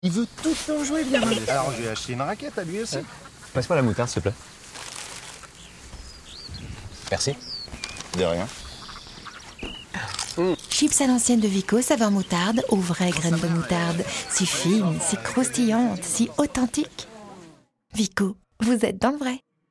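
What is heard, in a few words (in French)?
gourmande tendre, voix off féminine; Vico moutarde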